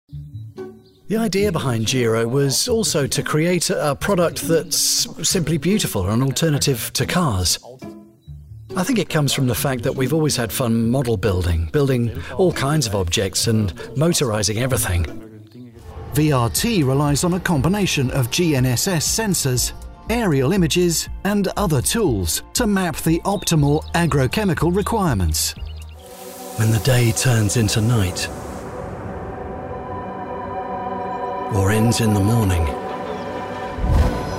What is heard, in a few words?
english british corporate videos; conversational voice actor with a compelling tone